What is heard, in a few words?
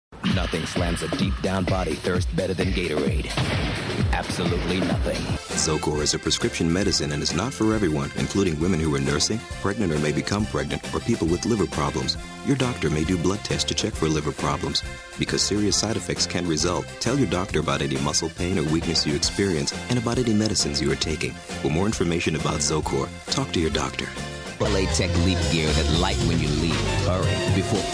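African American, voiceovers; foreign languages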